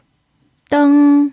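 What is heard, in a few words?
"deng1", Chinese; pinyin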